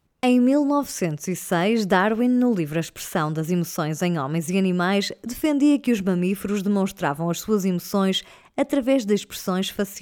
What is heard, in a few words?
portuguese audiobooks